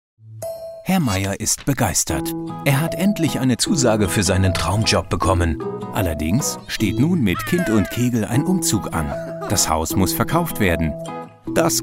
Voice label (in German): de werbung, deutsch maenner